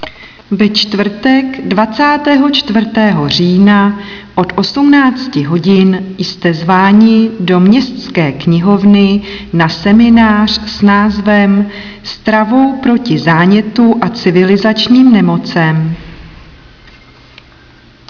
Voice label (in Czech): Hlášení rozhlasem